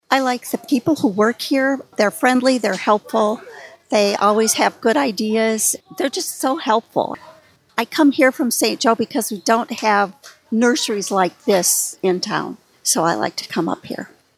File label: Testimonial